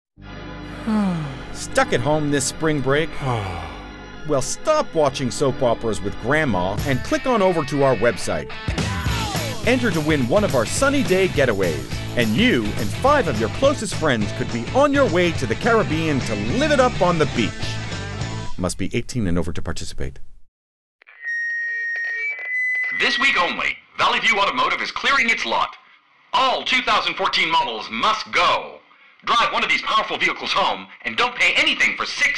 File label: Hard Sell, Voice Work